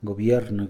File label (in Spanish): gobierno, Mixe, hombre; Moderno; INALI